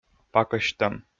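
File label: "пакӧсьттӧм", Komi, male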